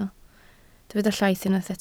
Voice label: "llaith", Welsh